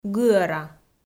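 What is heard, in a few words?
"гыӧра", Komi, female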